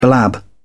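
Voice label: "blab", British English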